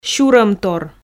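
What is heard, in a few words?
"сюромтор" Komi, female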